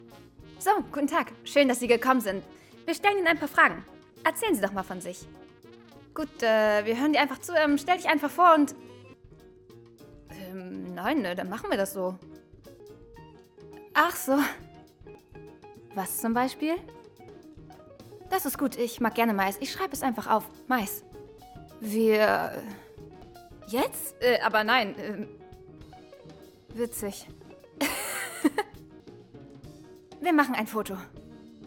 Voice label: Synchron, Lip-Sync, German